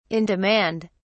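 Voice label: "in demand", British English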